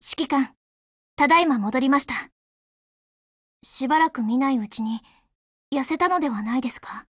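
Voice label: OPERATION OVER Japanese, Voice; GF Alarm; Fedorov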